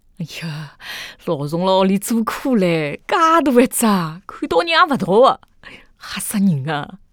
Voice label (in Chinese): 上海人A